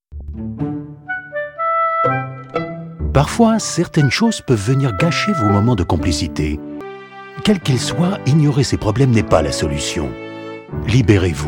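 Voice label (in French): pub tv Santé des hommes laboratoire; Lilly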